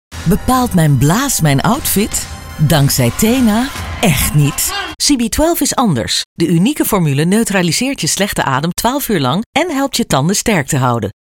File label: Commercials; RTV